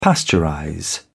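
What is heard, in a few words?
"pasteurize", British English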